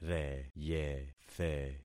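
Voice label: "reyethe", concatenated syllables; Affixing experiment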